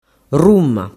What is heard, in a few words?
"rumma", dialettu